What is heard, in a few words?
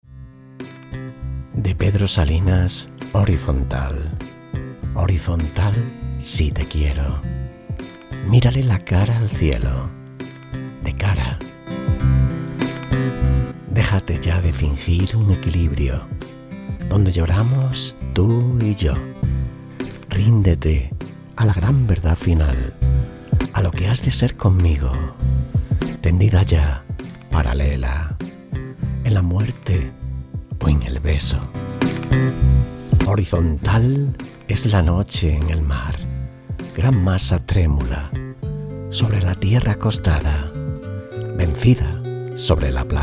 spanish voice over